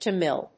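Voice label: "Tamil", English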